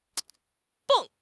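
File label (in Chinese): "蹦", Chinese; HSK6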